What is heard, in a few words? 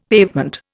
"pavement", Indian; Dictionary